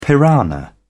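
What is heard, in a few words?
"piranha", British English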